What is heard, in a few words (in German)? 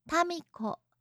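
"tamiko", japanische Mädchennamen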